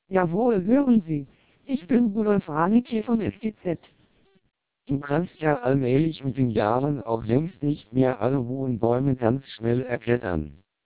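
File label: TWELP 400 bps Vocoder, German speech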